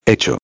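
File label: "hecho", Spanish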